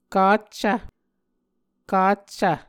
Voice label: "kaccv", Muscogee; Onvkv Ohhvyayices; A Dictionary of Creek/Muskogee; SIL International